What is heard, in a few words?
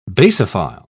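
"basophile", British English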